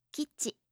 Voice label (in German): "kichi", japanische maedchennamen